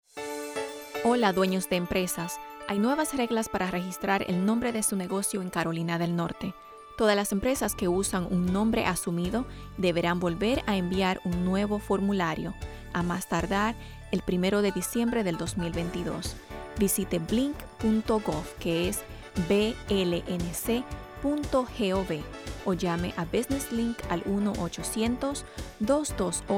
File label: SPANISH